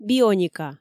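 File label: "бионика" Komi, female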